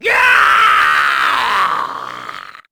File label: woman